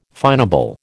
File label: "finable", American